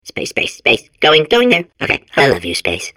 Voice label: babble; Portal 2

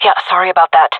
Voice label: sorry, fem; player vo; Counter Strike Model Pack; CS:GO

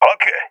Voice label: affirmation, balkan; balkan epic

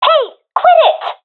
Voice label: taking fire friendly, professional fem; Source Model Packs; Counter Strike Model Pack; CS2

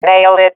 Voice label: sees enemy killed, swat fem; vo; agents; Source Model Packs; Counter Strike Model Pack; CS2

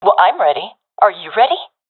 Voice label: round start, professional fem; vo; agents; Source Model Packs; Counter Strike Model Pack; CS2

